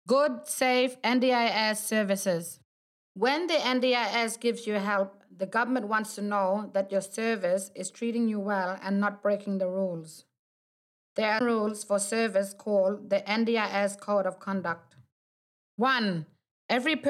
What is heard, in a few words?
English